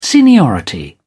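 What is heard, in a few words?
"seniority", British English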